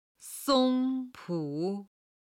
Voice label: "song1 pu3", Chinese